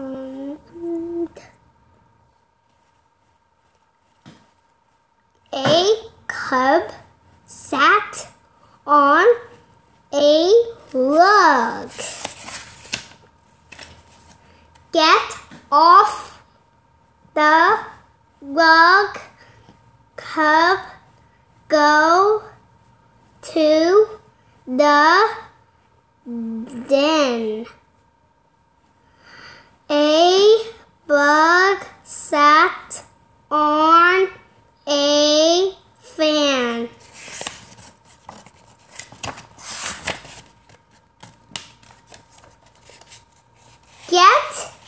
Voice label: Reading Frog Sat, Bob Books